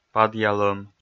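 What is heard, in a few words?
"падъялӧм", Komi, male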